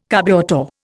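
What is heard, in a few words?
"carburettor", Indian English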